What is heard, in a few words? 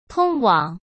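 "通往", Mandarin Chinese; chinese flashcards; hsk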